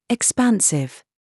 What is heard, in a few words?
DRESS TRAP, minimal pairs